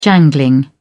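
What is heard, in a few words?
"jangling", British English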